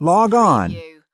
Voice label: "log on", US male; lynne publishing